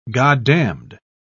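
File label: "goddamned", British English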